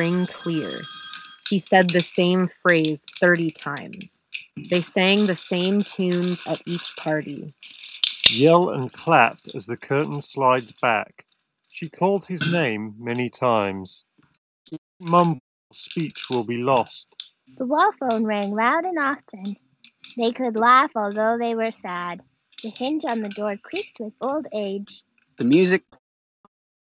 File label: Apple AirPods G3 Office microphone